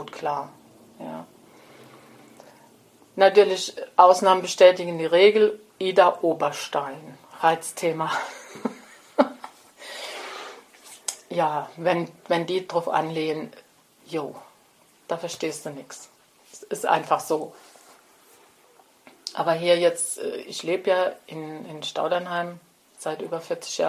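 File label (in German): mundart sprachgebrauch heute, O-Ton Hunsrück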